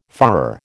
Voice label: "fÁhrer", American

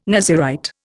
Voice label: "Nazirite", Indian

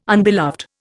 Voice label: "unbeloved", Indian

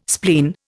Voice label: "spleen", Indian

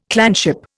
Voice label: "clanship", Indian

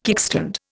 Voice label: "kickstand", Indian

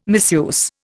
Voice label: "misuse", Indian

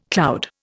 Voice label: "cloud", Indian

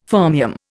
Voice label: "fermium", Indian

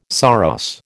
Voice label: "saros", American

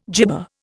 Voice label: "jibba", Indian

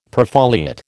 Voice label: "perfoliate", American

